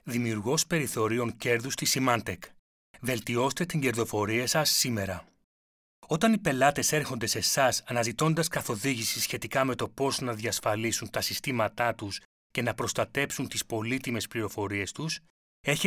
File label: male, Greek, explainer; design